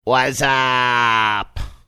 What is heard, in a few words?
drawn out wassup